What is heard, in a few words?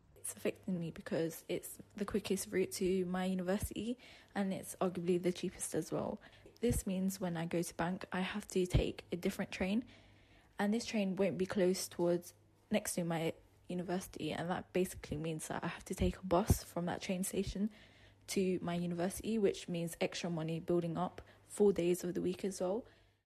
VOX POP ELEPHANT AND CASTLE STATION UNDERGROUND NORTHERN LINE TUBE